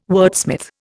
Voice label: "wordsmith", Indian

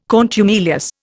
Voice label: "contumelious", Indian

